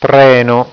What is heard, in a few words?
"treno", italian